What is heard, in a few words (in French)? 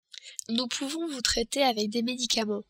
pouvons traiter, french